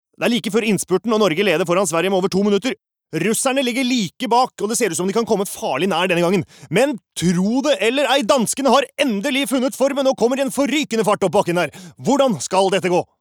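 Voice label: Norwegian dub commentator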